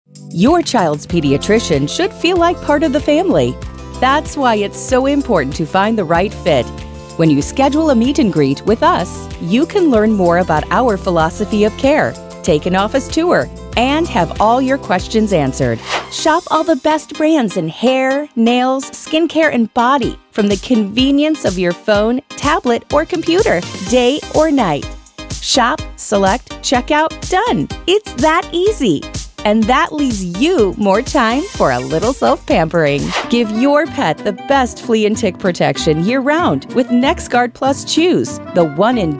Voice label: female, female voice artists